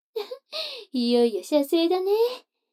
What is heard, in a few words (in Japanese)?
女の子 台詞